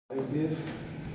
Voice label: voice message